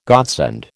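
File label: "godsend", American English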